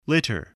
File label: "litter", spelling